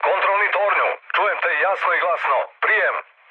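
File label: Serbian chat; War Thunder; speech srb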